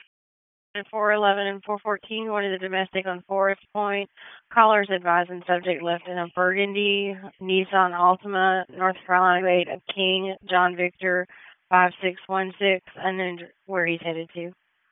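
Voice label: Raleigh Police Southeast District Dispatch; wake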